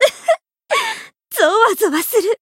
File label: Voice growth jp; pom